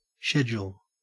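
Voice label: "schedule", Australian English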